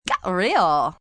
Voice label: Get Real Valley, Attitude, female; Talking Sound Effects